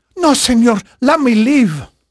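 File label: Spanish male, voice; Pirates Of The Caribbean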